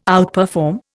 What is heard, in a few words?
"outperform", Indian